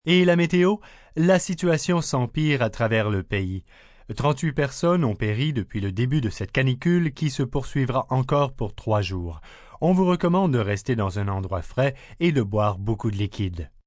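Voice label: News Heat French, Broadcast, news, male news item in French; on weather, heat wave.; human voice speaking; VoiceBox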